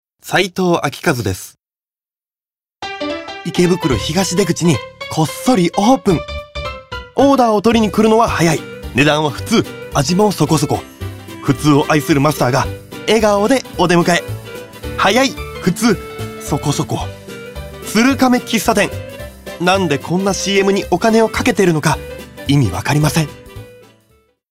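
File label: commercial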